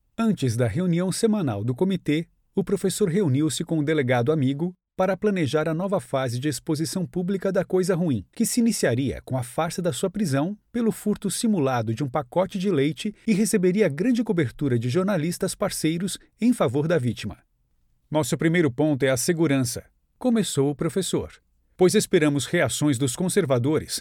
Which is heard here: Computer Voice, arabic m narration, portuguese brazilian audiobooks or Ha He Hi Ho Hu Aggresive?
portuguese brazilian audiobooks